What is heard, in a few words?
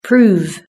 "prove", British English